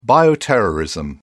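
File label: "bioterrorism", British English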